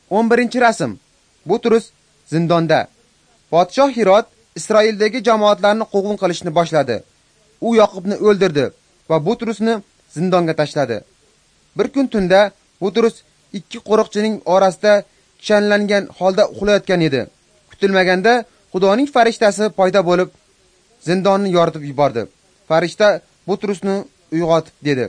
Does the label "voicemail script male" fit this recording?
No